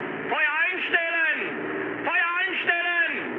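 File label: German player friendly fire mood low, speech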